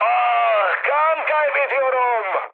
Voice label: damaged pilot, mood high, speech tur; War Thunder